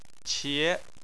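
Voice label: "qie", Chinese, tone 2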